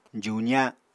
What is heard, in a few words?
"juza", Apatani